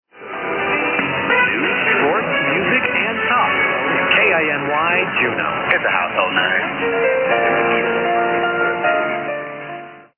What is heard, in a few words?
Recordings of station identifications of AM radio stations near Silicon Valley, California; 800 KINY; alaska